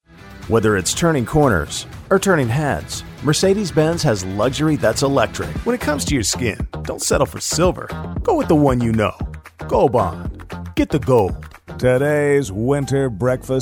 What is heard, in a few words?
COMMERCIAL